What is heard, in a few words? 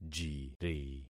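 "jiri", concatenated syllables; Affixing experiment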